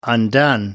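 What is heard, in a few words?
"undone", British English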